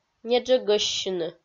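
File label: "неджӧгӧссьыны", Komi, female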